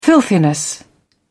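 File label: "filthiness", British English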